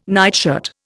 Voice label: "nightshirt", Indian

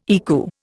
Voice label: "ECU", Indian

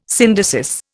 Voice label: "syndesis", Indian

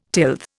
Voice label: "tilth", Indian

